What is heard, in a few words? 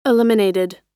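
"eliminated", American English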